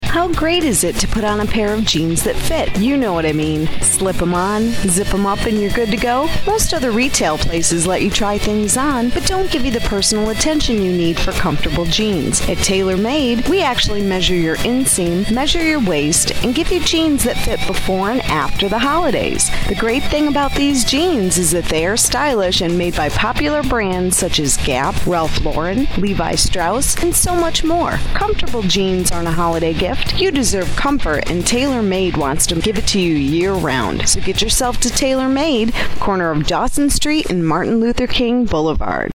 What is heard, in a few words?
female commercial